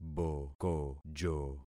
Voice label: "bokojo", concat syllables; Affixing experiment